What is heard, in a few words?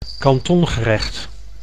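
"kantongerecht", Dutch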